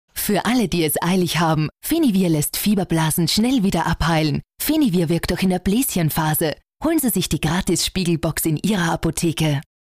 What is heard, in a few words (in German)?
Frau OFF